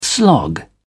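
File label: "slog", British English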